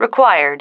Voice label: "required", female